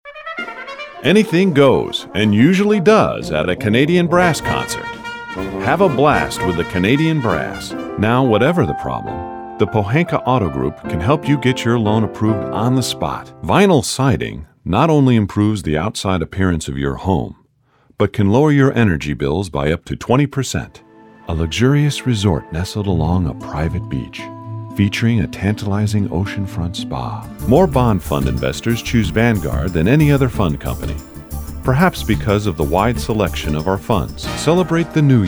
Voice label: Commercial